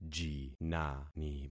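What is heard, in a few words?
"jinani", concatenated syllables; Affixing experiment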